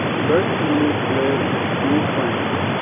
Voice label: compressed 12db